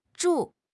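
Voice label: "zhu4", Chinese; HSK1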